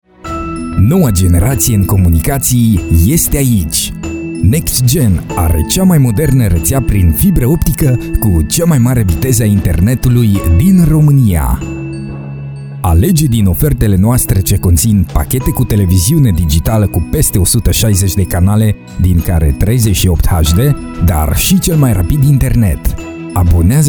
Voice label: romanian promos